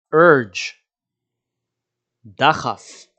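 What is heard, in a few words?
"urge" English, "דחף" Hebrew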